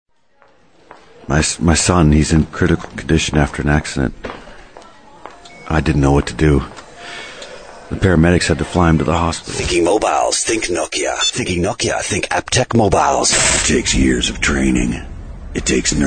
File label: Commercial